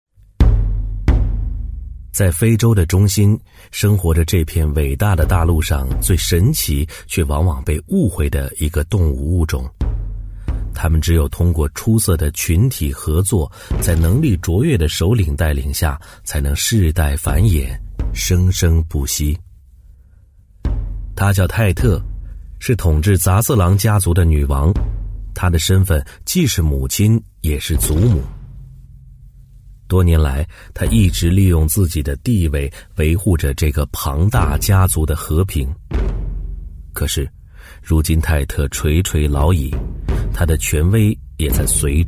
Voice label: 男, 纪录片, 洋气叙述; 磁性稳重 纪实记录

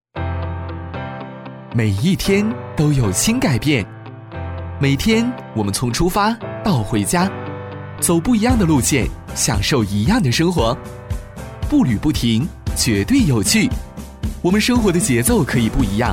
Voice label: 男 房地产广告 活泼 励志; 海珠湾; 年轻活力; 年轻时尚

